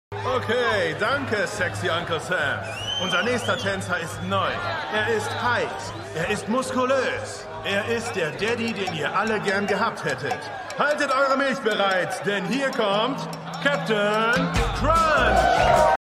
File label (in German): Stripclubansager; Shameless